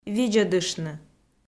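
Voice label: "видзӧдыштны", Komi, female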